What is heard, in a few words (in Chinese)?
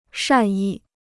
"善意", Chinese